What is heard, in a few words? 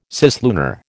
"cislunar", American